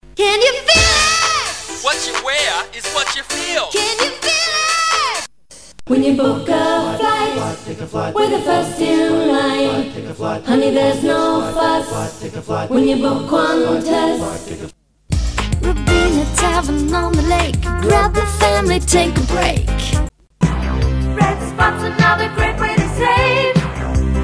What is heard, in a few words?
jingles